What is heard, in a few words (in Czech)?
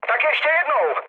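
Czech bomb failure, mood low; War Thunder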